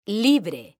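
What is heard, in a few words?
"libre", Spanish, female; mekanta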